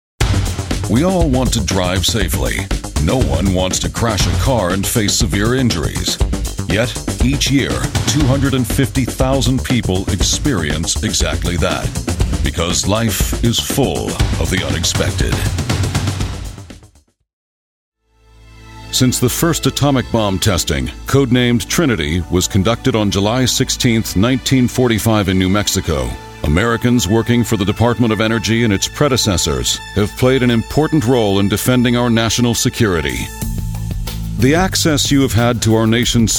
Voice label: Narration